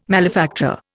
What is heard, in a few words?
"malefactor", Indian